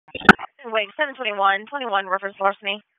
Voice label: WSO DISP 3, P25 recordings